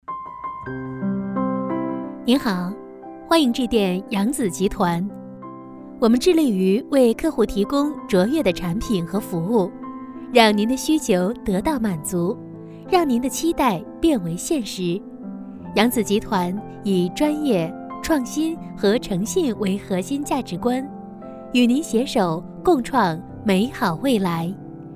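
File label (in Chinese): 彩铃温柔甜美; 扬子集团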